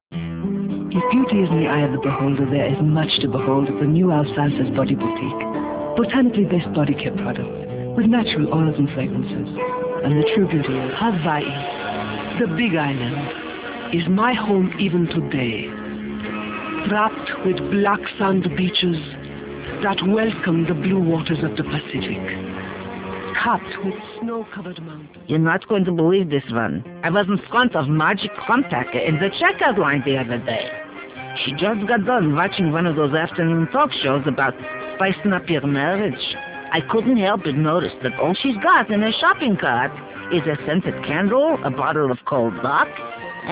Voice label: commercials